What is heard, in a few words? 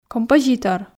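"композитор", Komi, female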